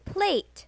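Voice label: "plate", English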